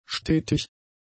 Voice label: "stetig", German; adjectives

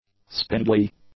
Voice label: "spindly", english; adjectives

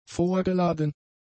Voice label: "vorgeladen", German; adjectives

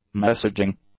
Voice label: "messaging", English; nouns

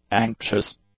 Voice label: "anxious", English; adjectives

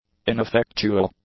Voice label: "ineffectual", English

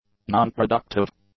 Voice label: "nonproductive", english; adjectives

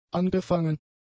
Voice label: "angefangen", German; adjectives

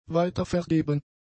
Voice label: "weitervergeben", German; adjectives

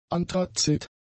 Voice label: "anthrazit", German; adjectives

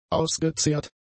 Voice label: "ausgezehrt", German; adjectives

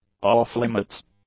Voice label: "off-limits", english; adjectives

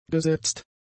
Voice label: "gesetzt", German; adjectives

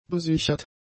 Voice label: "besichert", german; adjectives

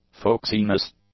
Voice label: "folksiness", English; nouns

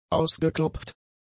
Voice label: "ausgeklopft", German; adjectives

